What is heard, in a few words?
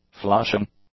"flushing", English; nouns